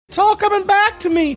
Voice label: saying its all coming back to me high pitched voice